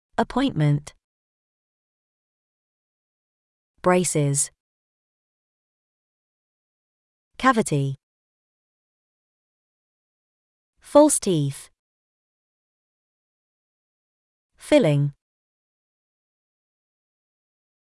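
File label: British; dentist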